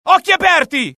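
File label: alert, Italian; mohaa; dialogue; sighted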